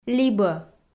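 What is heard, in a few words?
"либӧ", Komi, female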